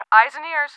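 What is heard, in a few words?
English Tf Eyes, English, female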